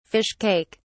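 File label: "fish cake", British English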